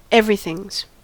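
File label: "everything's", American English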